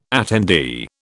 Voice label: "attendee", British